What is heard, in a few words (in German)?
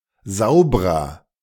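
"saubrer", German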